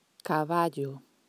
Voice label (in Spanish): "Caballo", Spanish